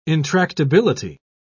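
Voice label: "intractability", British English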